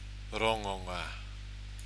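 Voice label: "rongonga", Maori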